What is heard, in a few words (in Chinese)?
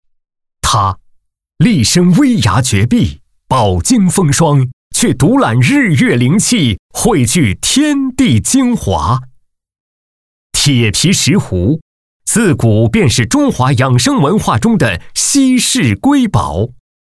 男 医药促销类